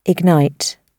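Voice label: "ignite", British English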